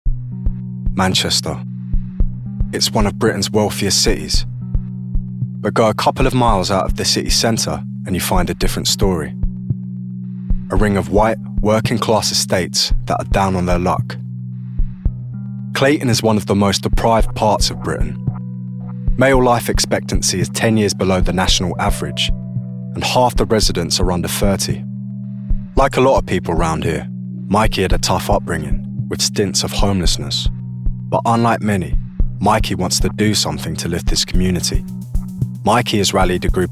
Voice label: Documentary; Gravitas, urban and cool. Actor. Credits include Hijack on Apple TV, The Walk-In and 1917.